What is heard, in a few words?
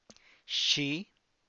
what which, San Lucas Quiaviní Zapotec; xi; qué, cuál